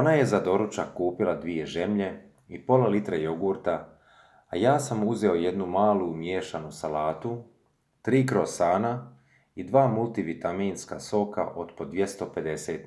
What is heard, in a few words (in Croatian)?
Isli smo u kupovinu hrvatski